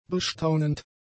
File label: "bestaunend", german; adjectives